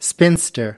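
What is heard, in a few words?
"spinster", American English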